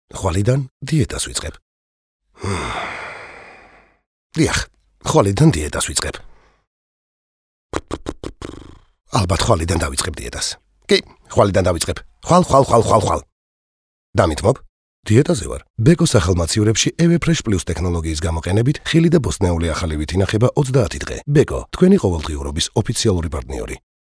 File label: Georgian male